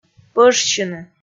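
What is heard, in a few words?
"пӧжсьыны", Komi, female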